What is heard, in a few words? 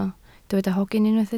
"hogyn", Welsh